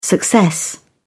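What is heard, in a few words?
"success", British English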